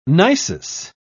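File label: "nisus", British English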